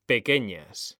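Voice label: "pequeñas", Spanish, male; mekanta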